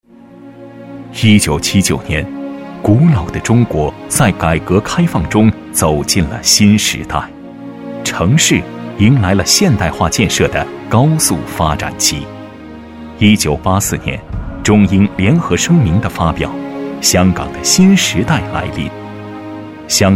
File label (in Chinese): 男 历史感; 中海